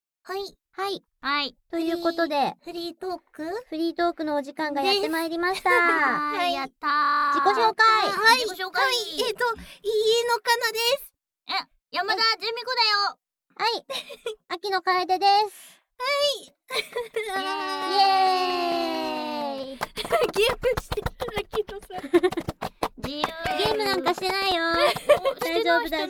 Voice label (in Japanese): フリートーク, ASMR